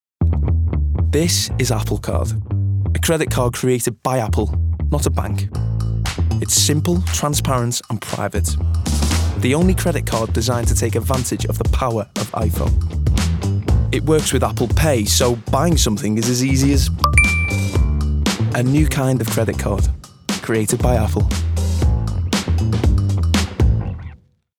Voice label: male English Scouse accent commercial; english northern accents; apple card